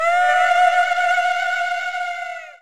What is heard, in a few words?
antisocial vox; FL Studio; slayerx drumkit